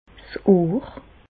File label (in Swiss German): "'s Ohr", Bas Rhin; medecine; oreilles